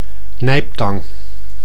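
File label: "nijptang", Dutch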